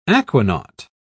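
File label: "aquanaut", British English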